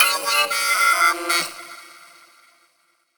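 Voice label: effects talk, Funky Vocals; USB Soundscan vol.18; AKAI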